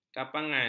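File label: "kapangan", Malay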